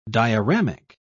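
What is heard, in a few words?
"dioramic", British English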